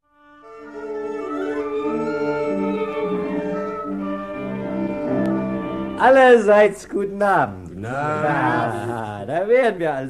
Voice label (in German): hoerspiel vorspiel; zuckmayer; Einleitung